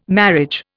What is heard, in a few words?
"marriage", Indian